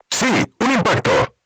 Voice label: voice message direct hit, crew tanks spanish

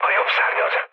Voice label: right wing, mood low, speech hun; War Thunder

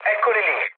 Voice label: enemy detected mood low, speech it; War Thunder

